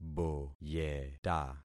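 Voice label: "boyeta", concatenated syllables; Affixing experiment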